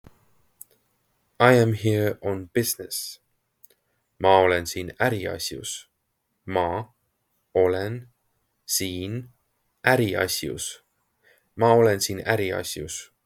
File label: I am here on business, estonian; the talking estonian phrasebook